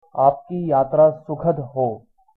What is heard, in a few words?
bon voyage hindi